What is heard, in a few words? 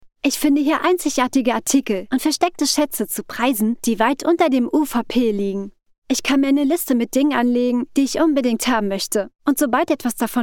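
German female Podcast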